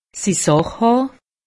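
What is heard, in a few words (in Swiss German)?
"sach", Alsatian; medecine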